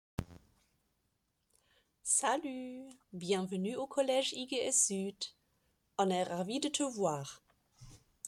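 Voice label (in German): Begrüßung, französisch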